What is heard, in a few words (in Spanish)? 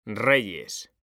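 "REYES", Spanish; mekanta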